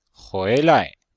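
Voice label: "hui2lai5", Chinese; Cours-de-Chinois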